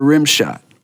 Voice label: LoFi Words, LoFi Vocals